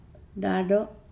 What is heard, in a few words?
"under", Nukuoro